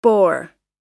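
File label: "bore", US English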